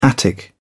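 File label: "attic", British English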